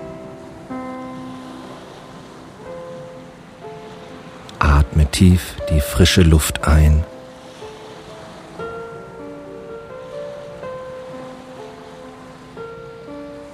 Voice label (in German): entspannung weihnachten traumhafte winter phantasiereisen, männliche Stimme; Winterentspannung an der Ostsee